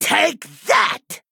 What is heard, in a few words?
Male Goblin Attack